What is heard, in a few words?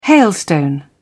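"hailstone", British English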